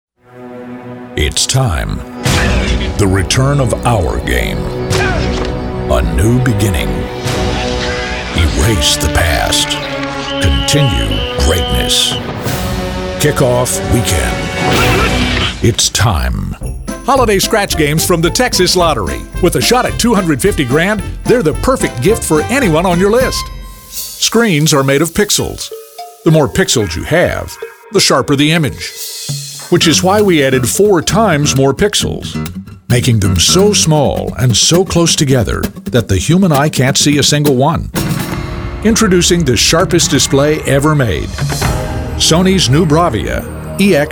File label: Voiceover